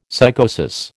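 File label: "psychosis", American English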